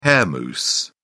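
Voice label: "hair mousse", British English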